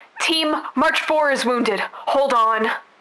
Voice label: Wound, Heavy Damage Radios; ISBSpecialForces; Aishi; March Four